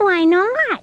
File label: "why not", cartoon; questions